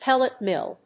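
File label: "pellet mill", English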